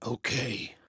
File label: "Yes", Male